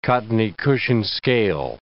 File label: "cottony-cushion scale", English